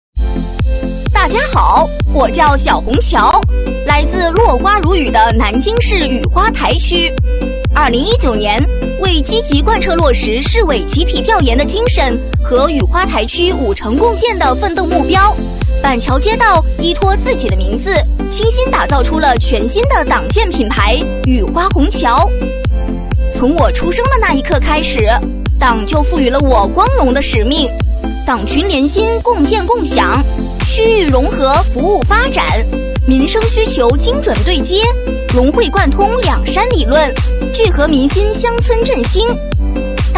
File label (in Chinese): 男童